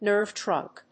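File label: "NERVE TRUNK", English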